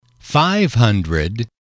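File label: "five hundred", English, male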